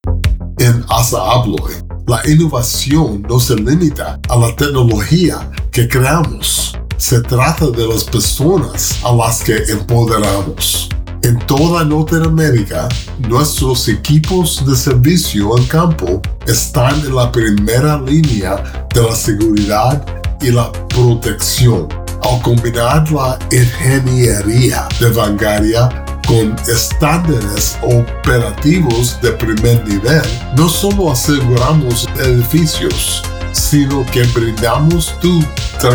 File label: Corporate Narration Spanish